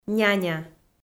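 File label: "няня", Komi, female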